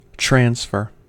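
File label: "transfer", American English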